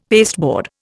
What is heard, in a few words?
"pasteboard", Indian; Dictionary